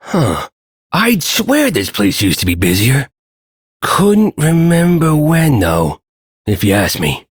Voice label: Ambient Shopkeeper, Dialog; Duviri